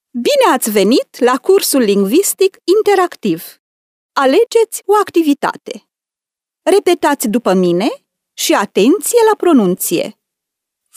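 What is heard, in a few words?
Romanian female corporate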